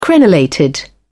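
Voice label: "crenellated", British English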